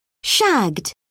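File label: "shagged", British English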